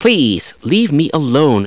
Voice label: sci alone, scientist; svencoop